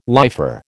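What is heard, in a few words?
"lifer", American English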